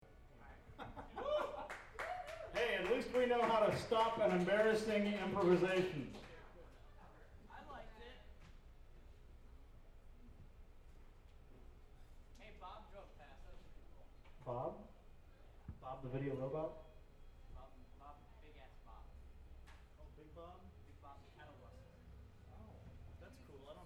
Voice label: banter; One World - December 2 2000